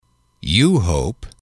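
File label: you hope, male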